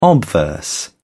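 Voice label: "obverse", British English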